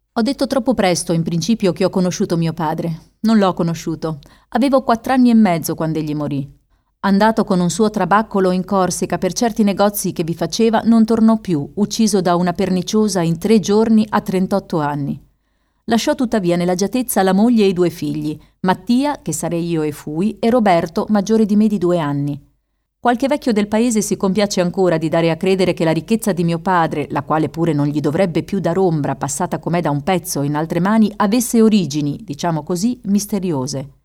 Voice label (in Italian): audioibri